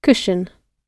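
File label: "cushion", British English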